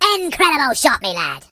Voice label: Perfect, Pirate

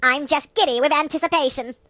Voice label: "Reinforcements", British; hedgewars

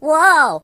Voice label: "Whoopsee", Mobster; hedgewars

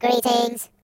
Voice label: "Hello", robot voice; hedgewars

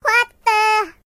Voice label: "What the", voices, Singer

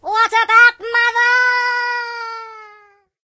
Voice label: "Nooo", British; hedgewars

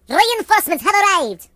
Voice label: Reinforcements, Russian; hedgewars

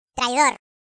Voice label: Traitor, voices es; hedgewars; Default es

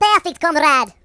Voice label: Perfect, voices Russian; hedgewars

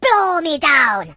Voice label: Kamikaze, voices Pirate; hedgewars

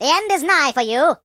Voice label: "I'll get you", British; hedgewars

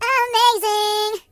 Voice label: "Amazing", voices; hedgewars

